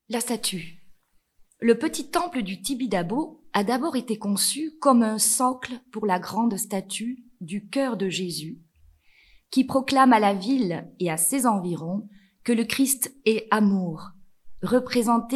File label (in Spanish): Audio Frances; TIBIDABO; audioguides francais